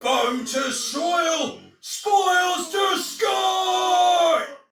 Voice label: See Player Shout, Soldier Male; Dialog; Barks; Battle; Sinecure